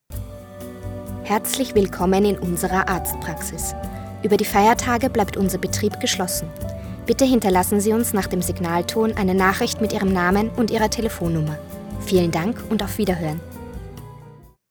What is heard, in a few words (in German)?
Telefonansage